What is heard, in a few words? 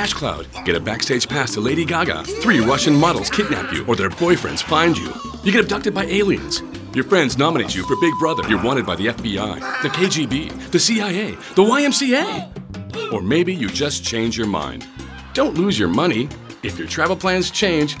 male american english hotel comercial; ideasonora